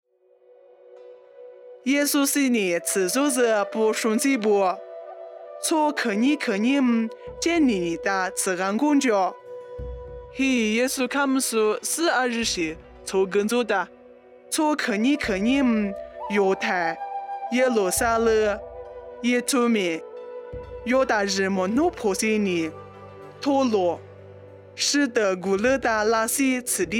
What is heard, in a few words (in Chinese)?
凉山彝语; 以色列以土买; nuosu